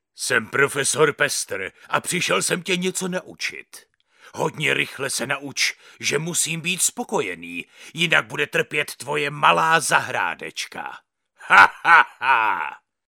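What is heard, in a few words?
Male Czech drama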